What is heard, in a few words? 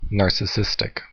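"narcissistic", English, American accent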